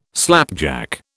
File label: "slapjack", British